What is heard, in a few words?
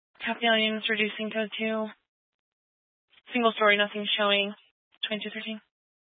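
Incident Tactical, scanner audio recording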